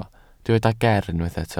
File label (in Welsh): "ger" Welsh